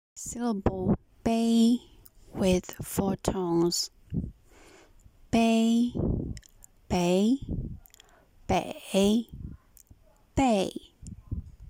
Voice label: bei with 4 tones, Mandarin